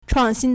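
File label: "chuàngxīn", Chinese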